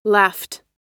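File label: "laughed", American English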